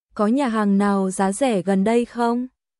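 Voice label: Vietnamese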